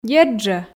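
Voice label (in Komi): дерт жӧ, Komi, female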